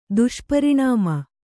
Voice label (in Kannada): "ದುಷ್ಪರಿಣಾಮ", Kannada